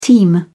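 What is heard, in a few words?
"teem", British English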